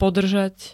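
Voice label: "podržať", Slovak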